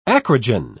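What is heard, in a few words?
"acrogen", British English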